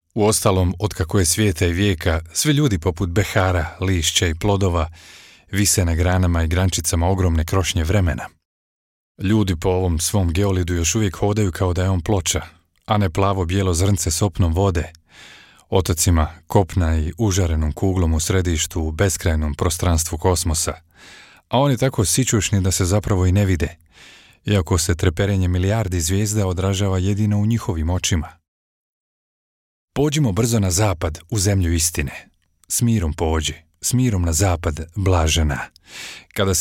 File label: Bosnian voice over continuity radio